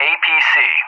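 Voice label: "APC", English; JTAC; DCS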